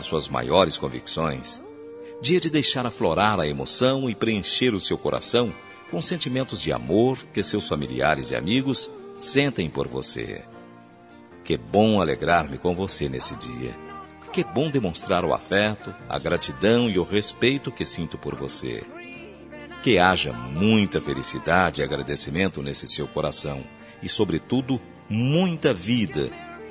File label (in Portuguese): madrinha masculina, Aniversário Madrinha – Voz Masculina